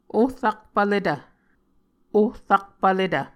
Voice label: "ohrakpvletv", Muscogee

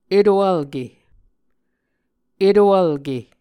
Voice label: "eto-vlke", muscogee

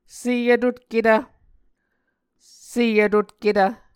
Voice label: "sevtotketv", Muscogee

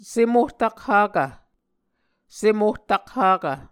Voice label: "sem-ohtakhakv", Muscogee

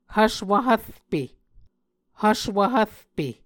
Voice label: "hvswv hvrpe", Muscogee

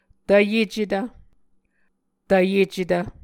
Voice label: "tvyecetv", muscogee

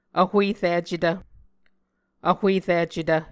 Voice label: "vhuericetv", Muscogee

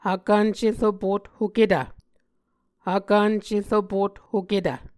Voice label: "hvkvnceropothoketv", Muscogee

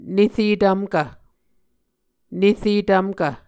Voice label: "nere tvmkv", Muscogee

